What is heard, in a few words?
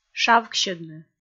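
"шавксьӧдны", Komi, female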